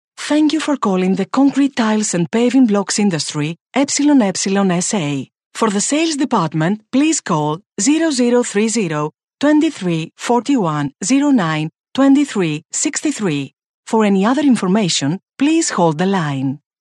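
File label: Greek, female, English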